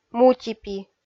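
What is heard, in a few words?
"мутипи", Komi, female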